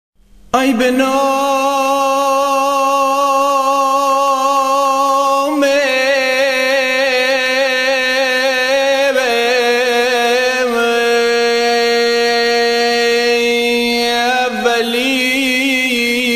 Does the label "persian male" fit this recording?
Yes